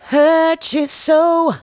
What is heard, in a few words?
"Hurt You So", vocal phrases; Ultimate Vocals